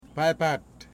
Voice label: "sago pudding", Wamut